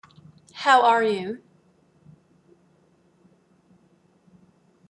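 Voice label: "how are you" English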